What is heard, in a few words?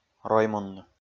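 "роймунны", Komi, male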